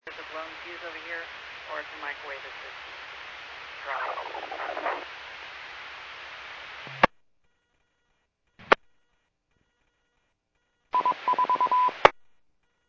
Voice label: Medevac, scanner; NativeAirAmb